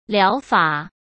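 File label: "疗法", Mandarin Chinese; chinese flashcards; hsk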